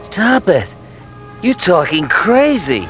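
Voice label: "talking", English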